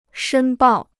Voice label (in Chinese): "申报", Chinese